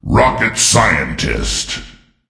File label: Voices Announcer Reward